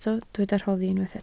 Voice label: "rhoddi", Welsh

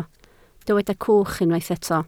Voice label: "cwch", Welsh

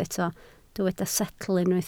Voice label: "setl", Welsh

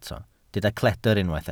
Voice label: "cledr", Welsh

